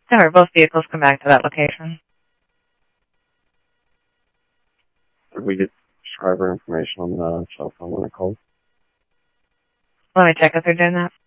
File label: Tempe Police, scanner audio